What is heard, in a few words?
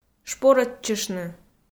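"шпорӧдчыштны", Komi, female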